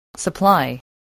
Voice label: "supply", English

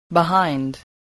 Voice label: "behind", English

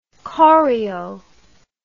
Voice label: "choreo", English

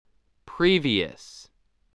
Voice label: "previous", voice, spelling

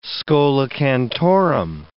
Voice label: "schola cantorum", English

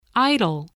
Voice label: "idol", voice spelling

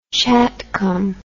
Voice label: "chatcom", English

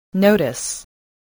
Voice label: "notice", voice, spelling

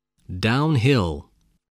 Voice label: "downhill", spelling

